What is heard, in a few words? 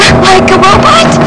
like robot; running-man